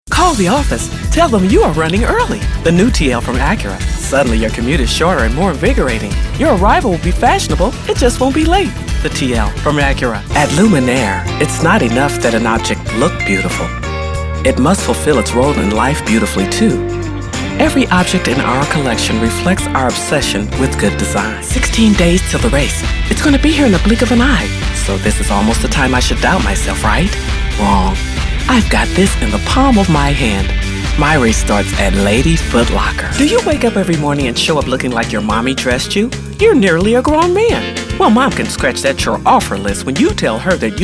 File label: Commercial